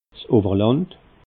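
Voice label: "ewerland", Alsatian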